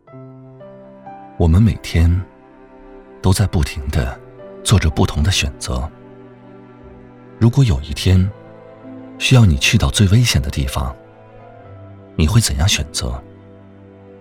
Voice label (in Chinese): 男 情感独白; 疫情下的选择